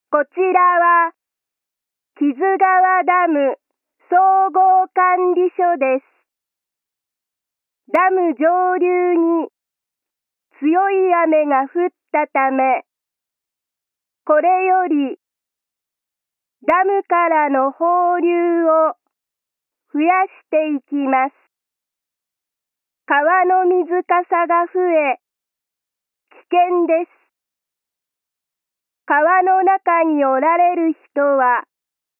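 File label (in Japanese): 雨が降り始めてゲート放流を開始する時の警報, 音声放送; 青蓮寺ダム管理所版; kizugawa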